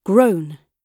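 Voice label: "grown", British English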